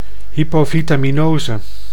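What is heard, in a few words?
"hypovitaminose", Dutch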